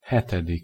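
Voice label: "hetedik", Hungarian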